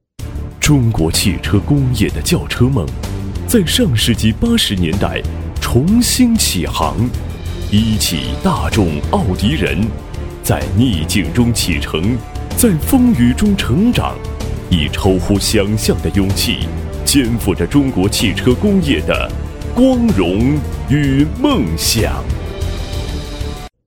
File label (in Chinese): 男 广告; 奥迪